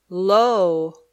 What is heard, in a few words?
"low", English